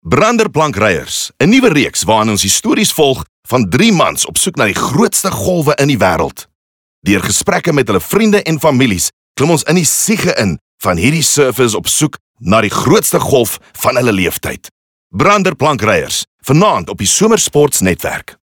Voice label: Afrikaans Skill Promo